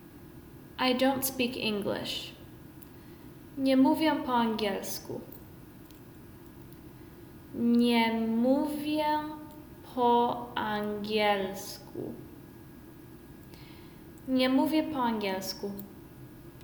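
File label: I dont speak English, Polish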